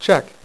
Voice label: "check", dealer's speech; PowerPOKER Demo

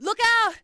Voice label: lookout warning; urbanchaos

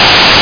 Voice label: LOONY

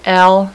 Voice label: "l" female; alphabet; Web Clip Empire 150,000

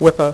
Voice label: "with a", dealer's speech; PowerPOKER Demo

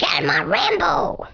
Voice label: Perfect, Danish

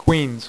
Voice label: "queens", dealer's speech; PowerPOKER Demo

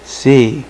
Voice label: "c" male, alphabet; Web Clip Empire 150,000

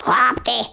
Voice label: Leave me alone, Danish

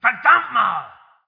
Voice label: german; SpecOps2 MPlayer Demo; For Dumpmore!

